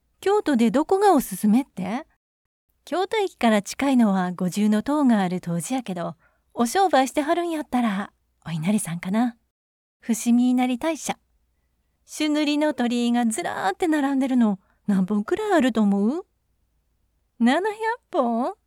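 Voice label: Japanese Kansai Dialect conversational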